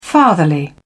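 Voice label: "fatherly", British English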